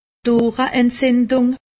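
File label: "oohreentzindung", Alsatian; medecine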